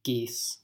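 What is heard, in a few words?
"geese", American English; pronunciations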